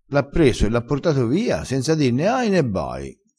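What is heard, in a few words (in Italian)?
senza di, vocabolario dialettale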